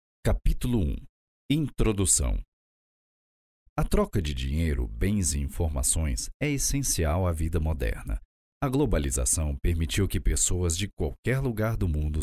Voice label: portuguese brazilian audiobooks

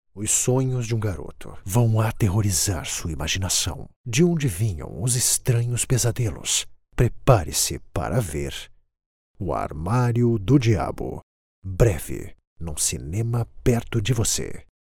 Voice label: portuguese brazilian movie trailers

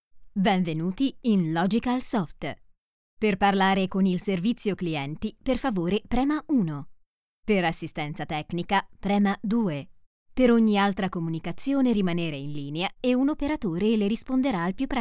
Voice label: italian ivr

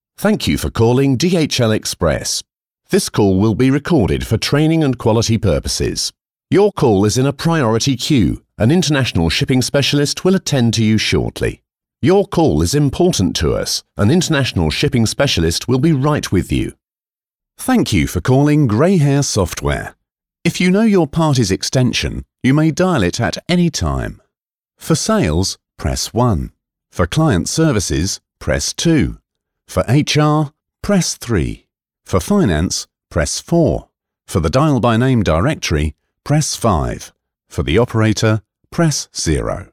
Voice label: english british ivr

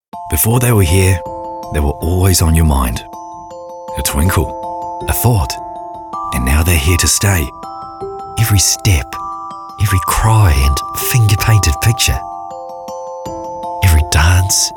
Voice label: english new zealand commercial; australian voice actors

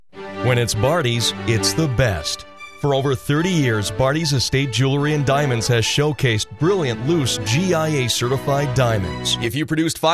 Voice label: english american narration

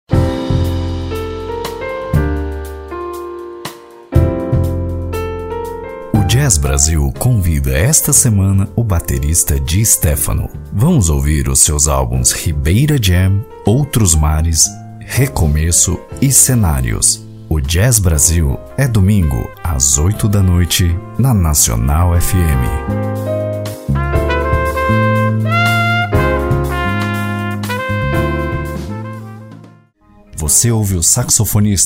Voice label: portuguese brazilian others

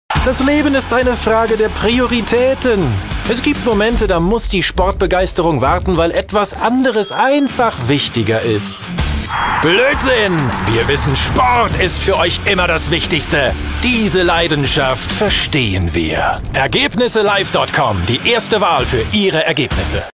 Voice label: german promos; actor de doblaje profesional

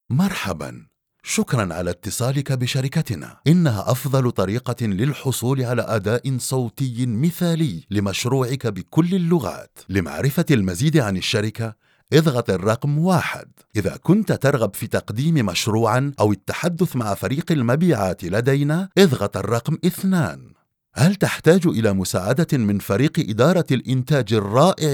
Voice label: arabic ivr

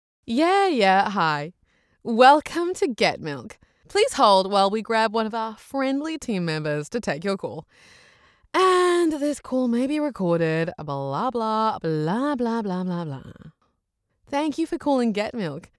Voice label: english australian ivr

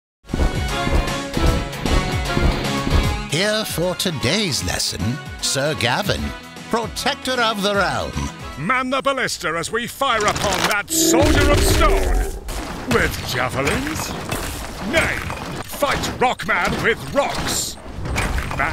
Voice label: english british video games

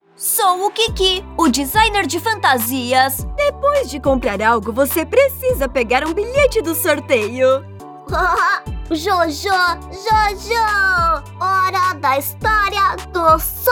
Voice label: portuguese brazilian others

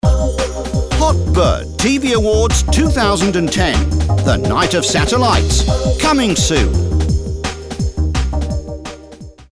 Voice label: English British promos

